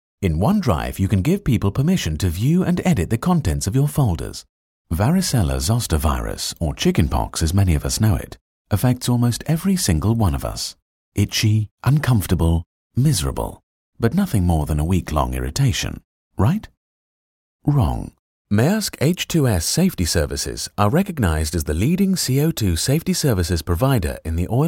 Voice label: e-learning

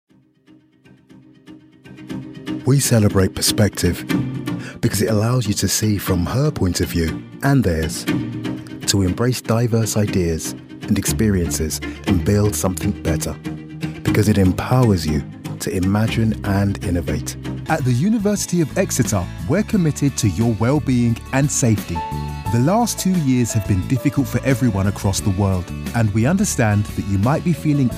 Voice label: corporate narration

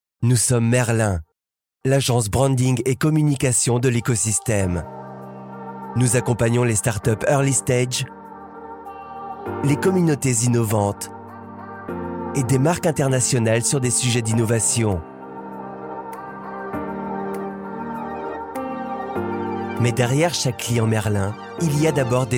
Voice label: french male corporate

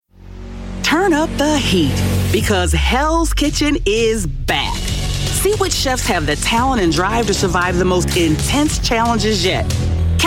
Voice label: african american, events

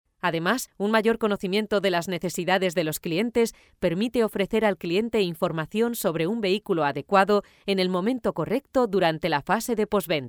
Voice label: castilian female elearning

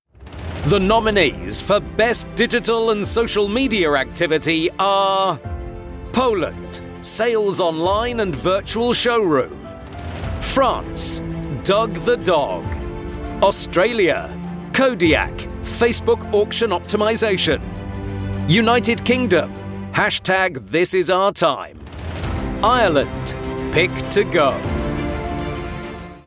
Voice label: voice of god

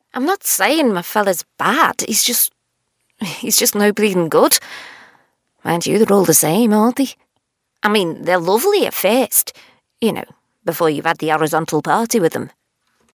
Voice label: liverpool accent

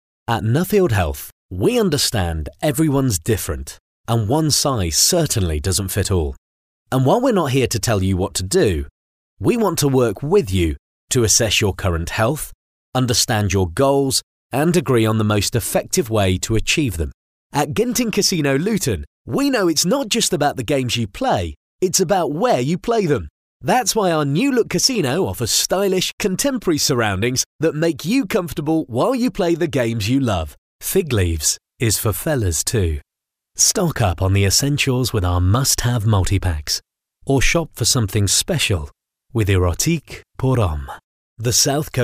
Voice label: telephone

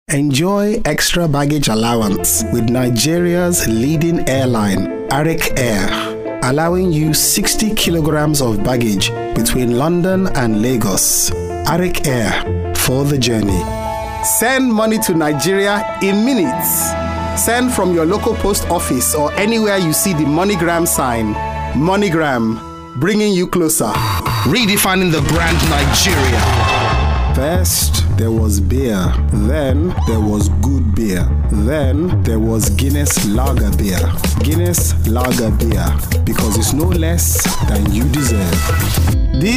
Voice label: african accent

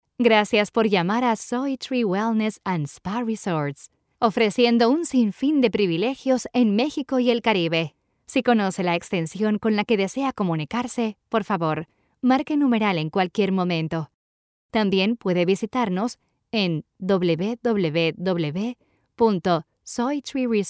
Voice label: latin spanish female neutral spanish ivr

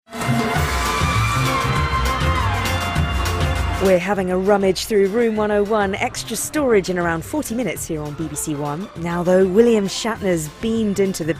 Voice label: continuity

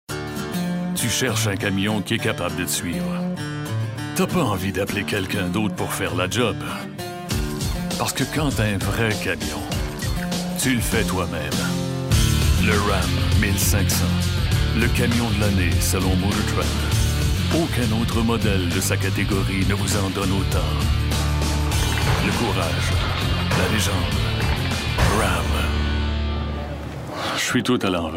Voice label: french canadian, commercial

